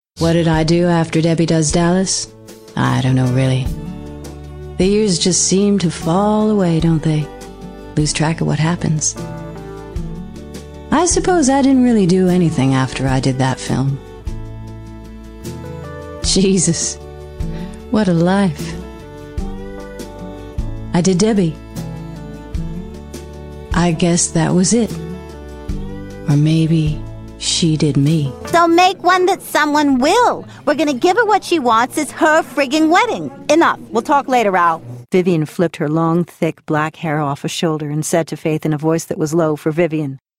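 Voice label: American English, Characters; voice demos; GoLocalise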